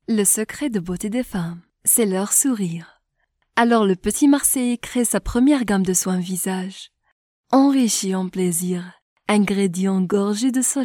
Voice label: french algerian voiceover locutora francesa argelia; locutora francesa de Argelia; Locutoras francesas africanas